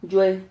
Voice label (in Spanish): "llue", Silabas